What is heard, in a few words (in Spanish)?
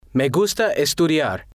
"me gusta estudiar", spanish